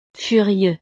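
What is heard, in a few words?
"furieux", French; estados animo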